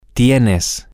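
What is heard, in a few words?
you have informal, Spanish, male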